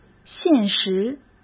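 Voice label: "现实", Mandarin Chinese; hsk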